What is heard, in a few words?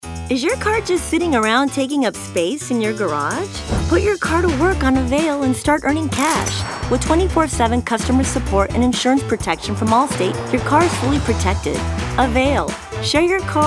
Commercial